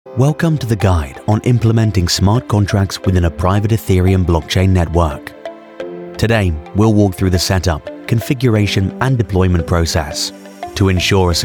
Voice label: UK English Explainer

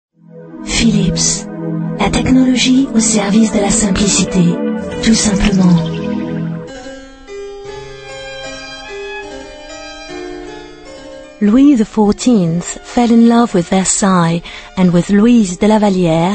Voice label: French

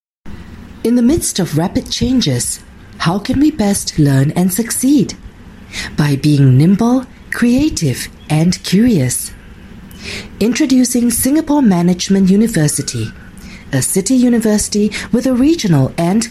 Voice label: Asian English; SMU

